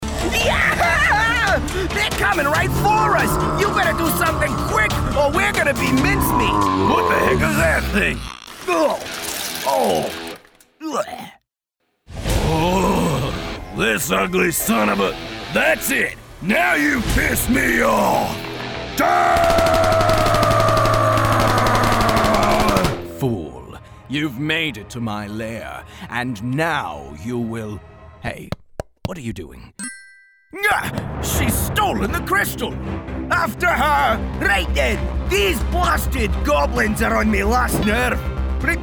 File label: Video Game, en-NZ